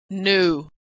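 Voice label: "knew", American English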